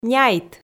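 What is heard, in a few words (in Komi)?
"няйт", Komi, female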